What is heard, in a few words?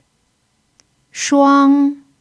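"shuang1", Chinese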